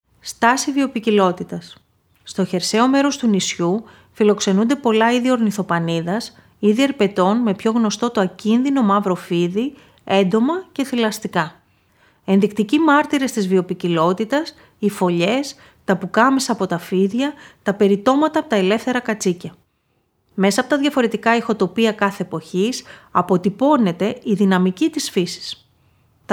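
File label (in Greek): Στάση βιοποικιλότητας, Ηχητική ξενάγηση; Γυάρο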